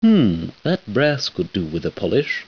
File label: polish brass; wanted